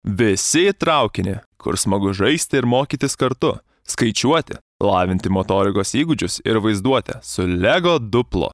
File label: Lithuanian male